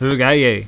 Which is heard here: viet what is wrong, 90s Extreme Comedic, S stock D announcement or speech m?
viet what is wrong